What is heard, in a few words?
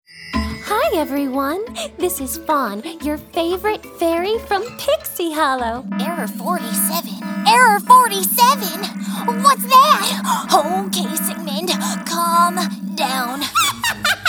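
animation female, Voice Over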